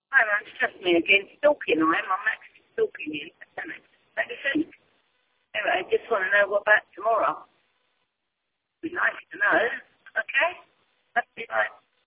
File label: voicemail